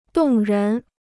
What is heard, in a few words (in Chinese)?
"动人", Chinese